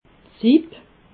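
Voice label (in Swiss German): "sib", Haut-Rhin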